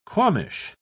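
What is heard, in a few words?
"qualmish", English, British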